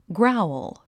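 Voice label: "growl", English; 発音 ɡrául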